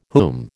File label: "whom", American